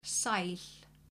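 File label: "sæl", Icelandic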